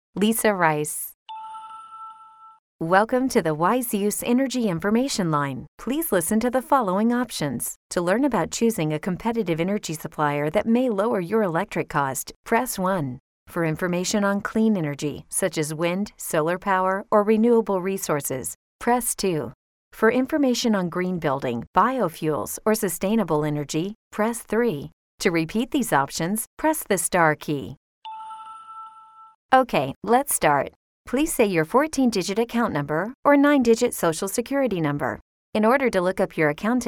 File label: American Female